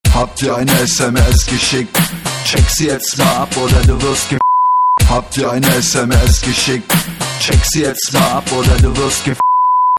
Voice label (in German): rap sms geschickt sms ton